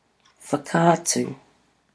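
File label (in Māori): "whakaatu", Māori word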